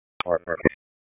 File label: Judicial, p25; WSO Judicial 1; wake